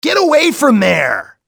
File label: "getaway", english, male, civ; XBOX